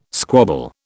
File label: "squabble", British